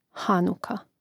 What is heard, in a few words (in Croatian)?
"hanuka", hrvatski; mreznik